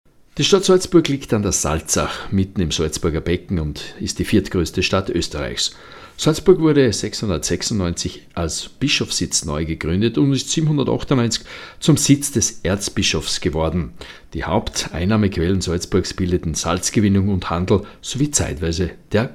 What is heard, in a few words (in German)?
Dialekt Salzburgerisch, voices